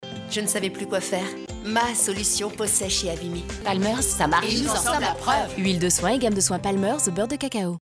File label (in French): Synchro pub feminine; Palmer's